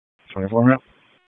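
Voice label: Police Northeast District Dispatch; Raleigh; p25; wake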